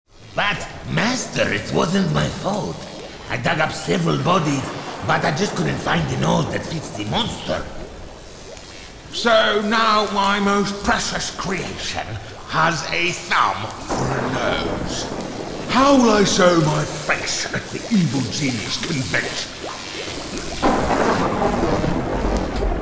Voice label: Horror